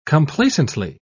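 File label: "complacently", British English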